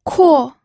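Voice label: "kuo", fourth tone, pinyin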